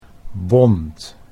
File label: "bond", Dutch